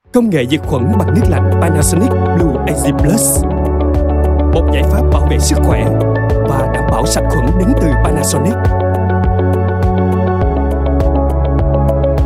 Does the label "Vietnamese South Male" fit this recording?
Yes